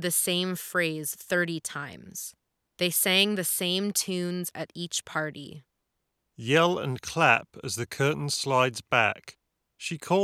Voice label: Steelseries Arctis 7 Wireless 3.5mm wired Ideal microphone